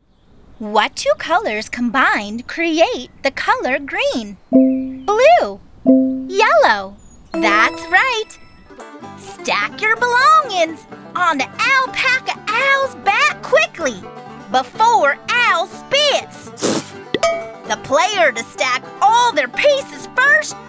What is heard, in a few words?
Interactive Media For Kids; Sounds Like Sunshine Voiceovers